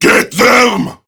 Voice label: Prime Grineer Male; Warframe; Tau; Battle